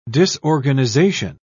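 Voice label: "disorganisation", English, British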